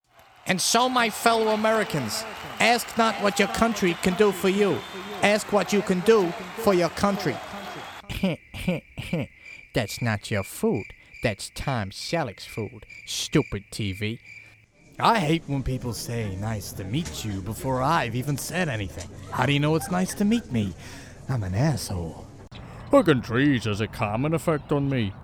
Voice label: Impressions character reel